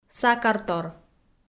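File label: "сакартор", Komi, female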